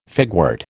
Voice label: "figwort", American